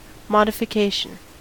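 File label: "modification", American English; pronunciations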